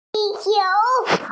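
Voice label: "qi4 qiu2", Mandarin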